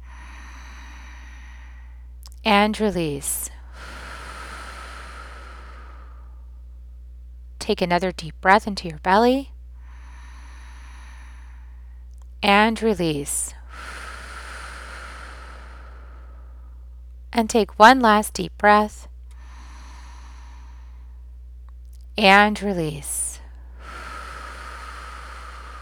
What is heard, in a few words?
Clear Pattern At Root Meditation